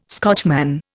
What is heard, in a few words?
"Scotchman", Indian